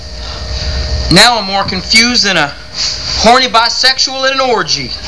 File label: confused